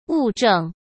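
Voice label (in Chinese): "物证", Mandarin Chinese; hsk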